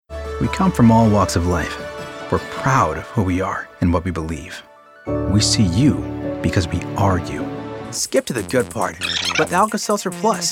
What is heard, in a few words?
Commercial